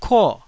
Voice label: "kuo4", Taiwanese syllable